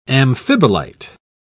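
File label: "amphibolite", British English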